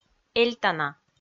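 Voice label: "эльтана" Komi, female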